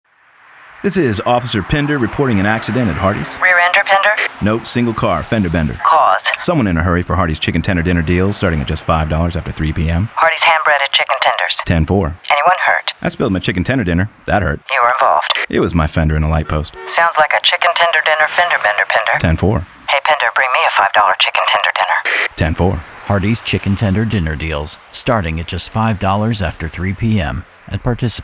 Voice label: Radio; Regional National Single; Tender Bender